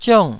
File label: "ziong4", Taiwanese